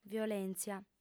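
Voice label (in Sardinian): "violèntzia", Sardinian, female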